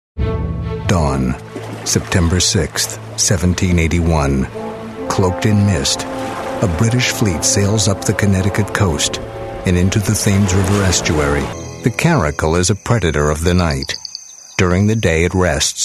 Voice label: American Male

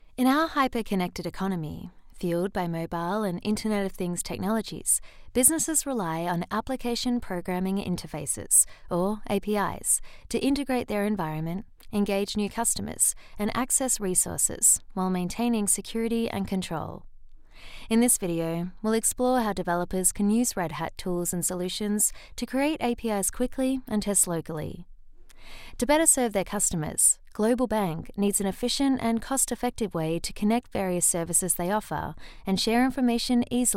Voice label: Australian Female

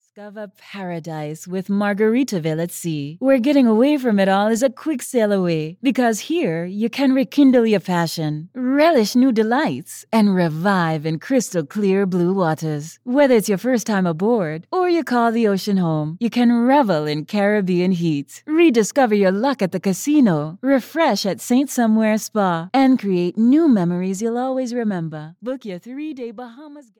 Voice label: Island Accent, Accented English, Female

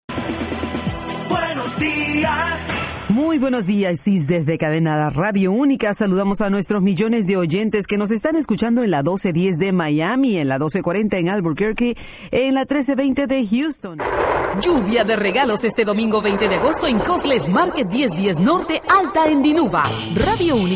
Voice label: Spanish Female

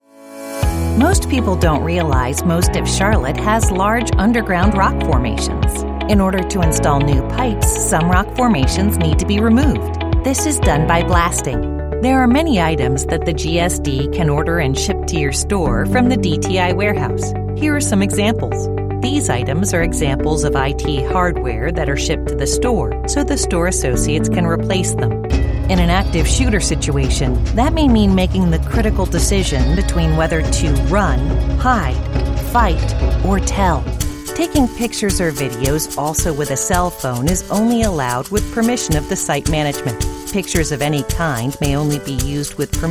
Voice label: American Female

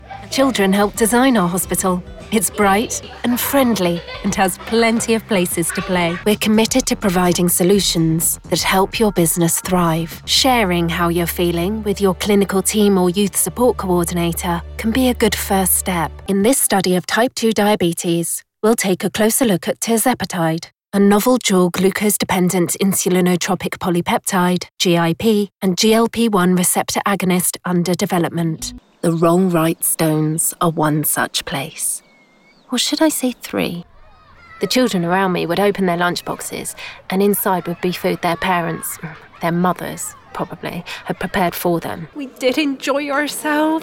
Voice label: British Female

